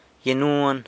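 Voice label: "yanuan", chirimoya tree, San Lucas Quiaviní Zapotec; yannuuan; árbol de la chirimoya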